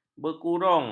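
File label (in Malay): "berkurung", Malay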